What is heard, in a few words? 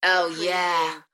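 British female Oh yeah; beanstalk audio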